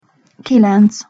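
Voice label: "kilenc", Hungarian pronunciation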